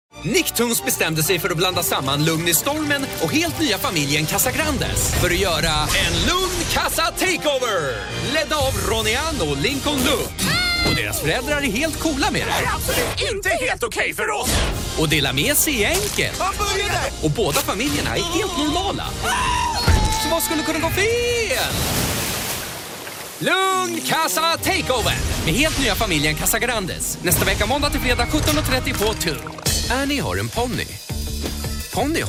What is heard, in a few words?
Trailers; Nicktoons